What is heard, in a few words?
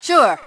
Team Anytime, Female; T2 Female 03